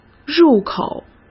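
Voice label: "入口", Mandarin Chinese; hsk; chinese-flashcards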